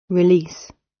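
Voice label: "release", British English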